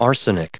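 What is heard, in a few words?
"arsenic", English, us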